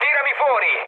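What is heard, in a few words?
Italian bailout mood low, speech; War Thunder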